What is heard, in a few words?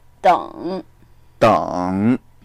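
"deng3", Mandarin Chinese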